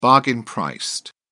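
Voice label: "bargain-priced", British English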